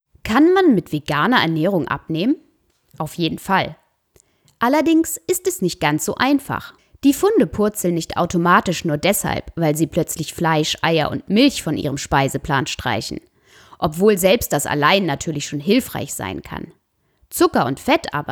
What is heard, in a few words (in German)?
Podcast Service Stimme Weiblich